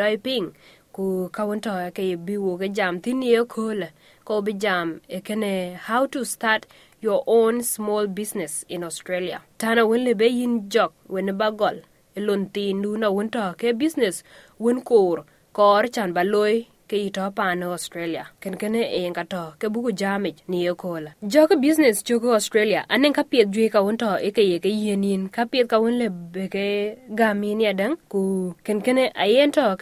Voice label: dinka, podcast; How to start your own small business in Australia; SBS Dinka